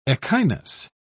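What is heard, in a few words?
"echinus", British English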